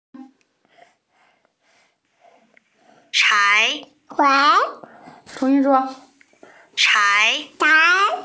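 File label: "chai2", Mandarin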